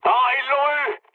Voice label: aircraft destroyed mood med, speech fr